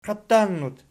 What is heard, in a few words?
"qiatannut", Archi